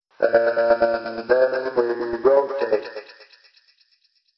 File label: male and then we rotate stutter; Robotic or Stuttery or Spooky